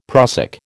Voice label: "prussic", American

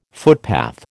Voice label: "footpath", American

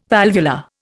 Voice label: "valvular", Indian

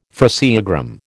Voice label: "phraseogram", American

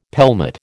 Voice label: "pelmet", American

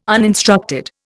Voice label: "uninstructed", Indian

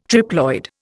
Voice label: "triploid", Indian

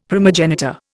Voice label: "primogenitor", Indian; Dictionary

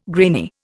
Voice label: "grainy", Indian

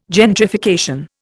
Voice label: "gentrification", Indian

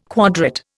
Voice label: "quadrate", Indian

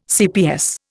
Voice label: "cps", Indian

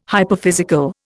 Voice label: "hyperphysical", Indian

